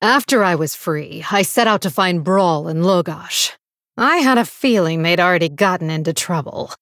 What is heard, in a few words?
Female Blood Elf; Valeera